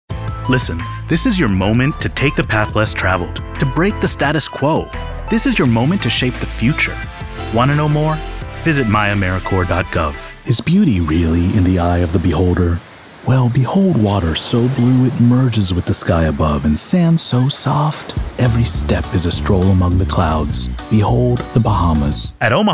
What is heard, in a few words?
Commercial